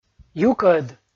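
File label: "юкӧд", Komi, male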